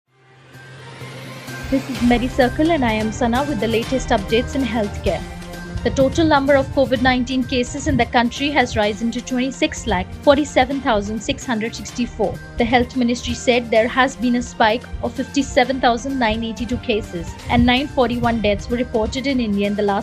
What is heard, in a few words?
english news